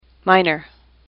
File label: "minor", English; AWL